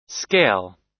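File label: "scale", American English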